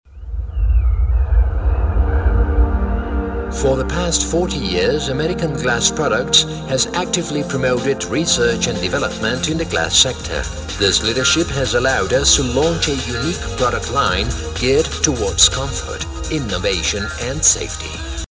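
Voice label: english american male voice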